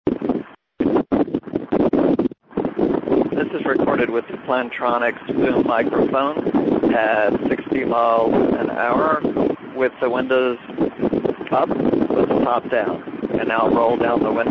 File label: Plantronics Boom Old 60mph open, cell phone headsets